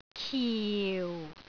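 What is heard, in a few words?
"kiu4", Cantonese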